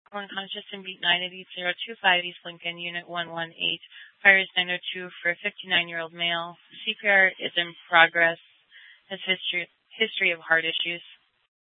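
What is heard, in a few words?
police dispatch, Scottsdale PD; Simulcast H- Scottsdale; Nth Dispatch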